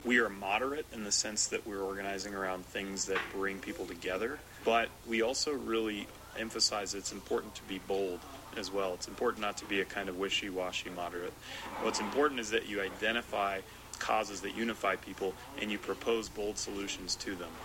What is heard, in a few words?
Moderate Voice